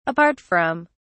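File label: "apart from", British English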